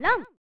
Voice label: "Loongth!" (Female); pro mahjong kiwame; snes

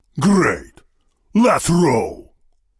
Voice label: Voice Dialog

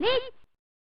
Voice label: "Neets!" (Female); pro mahjong kiwame 2; snes

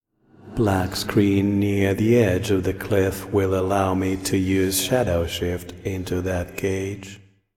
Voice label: Voice Monolog; we are the dwarves gamerip 2016

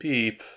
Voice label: Voices sad; The Looker

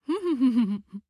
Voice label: Manager Mumble; Facman

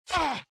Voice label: Voices ritual male

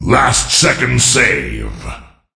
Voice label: Voices Announcer Reward; unreal development kit

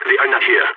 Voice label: Balkan Cleared area

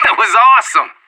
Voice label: Anarchist Radio bot end clean

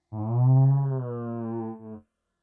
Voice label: Dialogue speech; Hylics

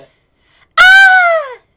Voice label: Voices Female

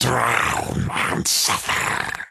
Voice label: Deep Devils Taunt

